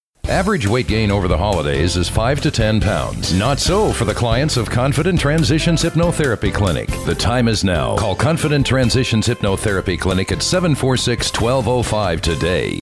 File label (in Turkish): Amerikan Ingilizcesi Erkek; Amerikan İngilizcesi Seslendirme